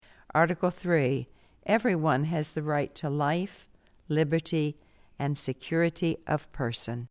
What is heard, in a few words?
English